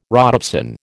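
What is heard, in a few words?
"rhodopsin", American English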